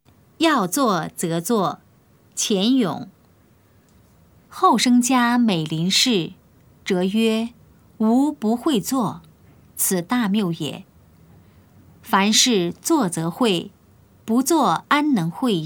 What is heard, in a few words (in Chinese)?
putonghua; 要做則做; 普通話